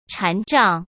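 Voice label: "禅杖", Mandarin Chinese; hsk; chinese-flashcards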